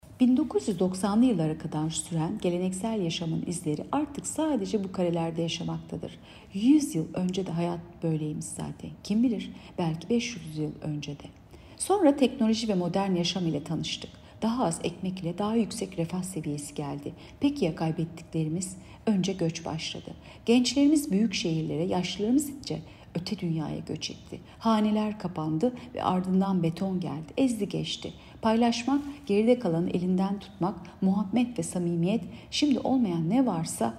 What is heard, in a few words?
turkce